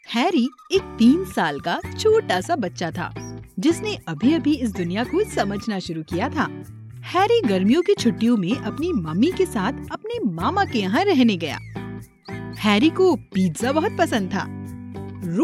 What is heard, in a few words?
Kids Story Hindi